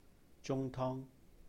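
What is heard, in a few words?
"jūng-tōng", Cantonese; Chinese style soup; 中湯; zung1tong1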